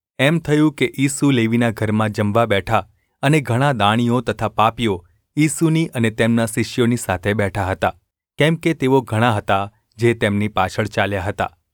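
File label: gu ulb mrk, Gujarati; mrk 2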